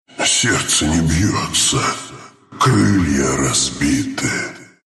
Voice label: death, ru; Dota 2

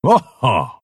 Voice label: happy

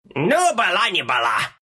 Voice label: homing missile fire, ru; Gyro

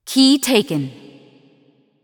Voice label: Announcer Match Flag Ball Ball Taken; LoreLady

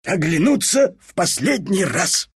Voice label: death ru; dota2

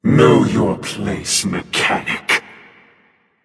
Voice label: Taunt Directed; Smite; Scifi Anubis; Vulcan

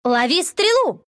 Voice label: attack, ru

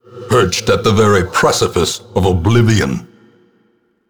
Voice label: Narration deaths door; darkestdungeon

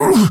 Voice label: Paladin Wound, VO ENG; battleriteroyale

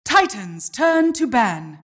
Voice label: announcer team ban

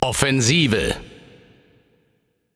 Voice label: Announcer German Game Mode Onslaught

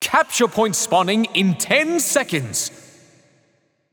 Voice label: Announcer Town Crier Match Point Spawn D; paladins

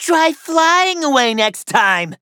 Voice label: Taunt Directed; Demon; Strix

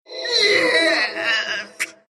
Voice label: death ru; dota2

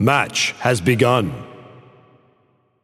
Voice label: Announcer Match Begin

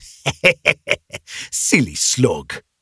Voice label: VO Taunt; Battlerite; Ranid

